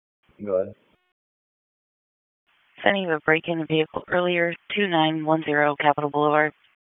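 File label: Police Northeast District Dispatch, p25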